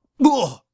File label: Hurt Med; X Lines; X line pickups